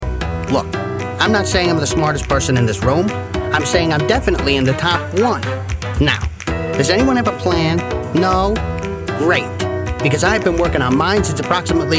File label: Animation Arrogant Cocky Confident Worried Humourous Panic